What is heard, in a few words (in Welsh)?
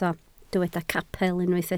"capel" Welsh